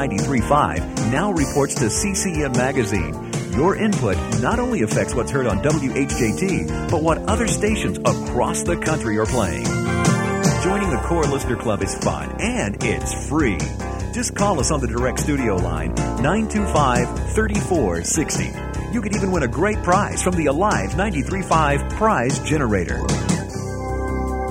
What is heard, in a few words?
Music Mix Promo